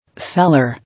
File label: "Cellar", English